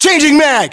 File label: inform reloading generic, english, us